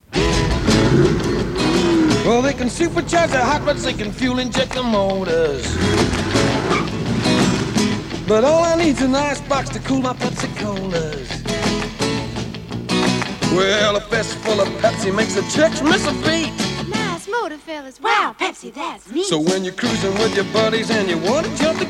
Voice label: Radio Hallam Advert; Pepsi Cola